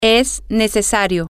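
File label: it is necessary, spanish, female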